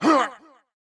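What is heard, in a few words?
soldier voice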